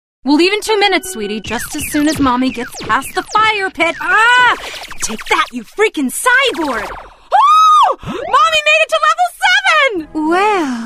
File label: Animation Demo